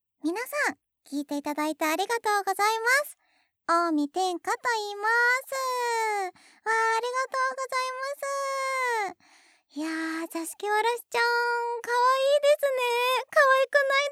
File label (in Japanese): おまけのフリートーク